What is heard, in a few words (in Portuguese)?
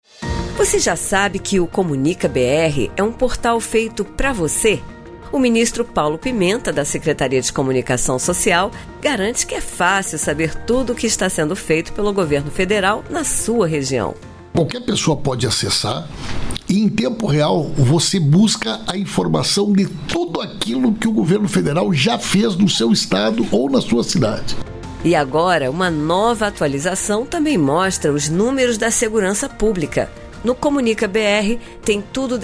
spot; comunicabr